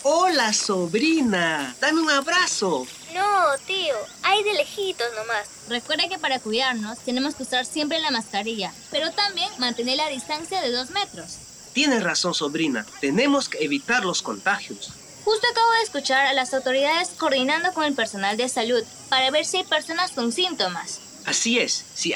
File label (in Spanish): castellano pista amazonica uso de mascarillas 2 voces; medidas de prevencion; spot de radio